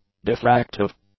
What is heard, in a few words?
"diffractive", english; adjectives